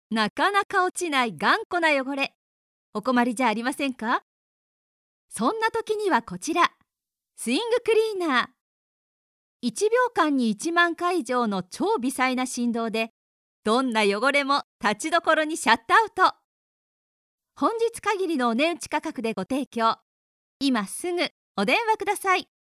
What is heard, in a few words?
female